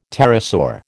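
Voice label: "pterosaur", American English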